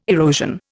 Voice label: "erosion", Indian